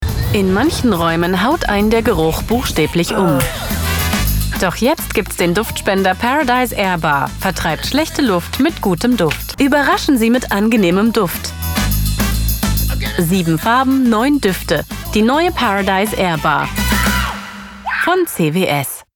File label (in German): DE Werbung; CWS